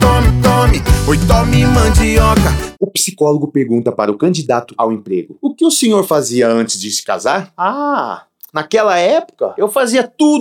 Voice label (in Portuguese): spot piada; rei da mandioca; riso do radio